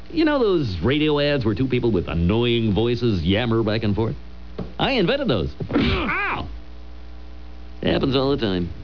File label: Annoying radio ads